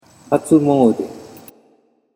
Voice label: "hatsumoude", Japanese